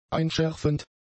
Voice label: "einschärfend", German; adjectives